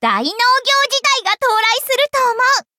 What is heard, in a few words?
levelup ja; Cookie Run